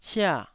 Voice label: "sia4", taiwanese Syllables